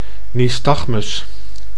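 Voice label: "nystagmus", Dutch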